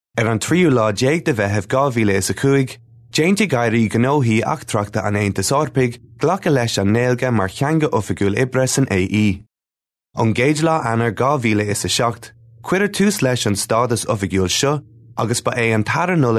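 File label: Gaelic Irish Male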